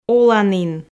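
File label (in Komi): "оланін", Komi, female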